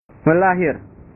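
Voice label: "melahir", Indonesian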